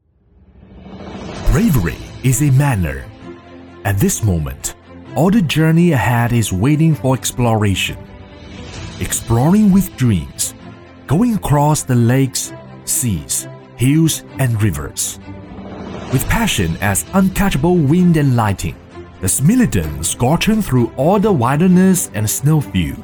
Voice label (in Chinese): 英文（大气磅礴）